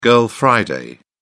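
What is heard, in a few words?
"girl friday", English, British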